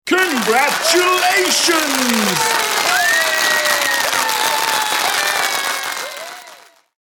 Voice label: Male voice saying Congratulations with crowd cheers and applaus; Male voice saying Congratulations with crowd cheers and applause.; Exclamations